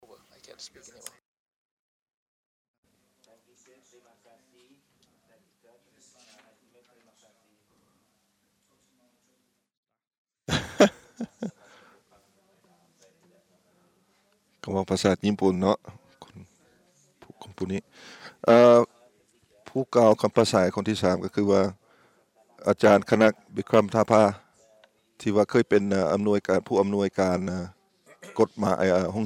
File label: Laotion; iclrs symposium 2009